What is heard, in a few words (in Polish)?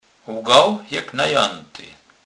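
"zelgac", Kurpie dialect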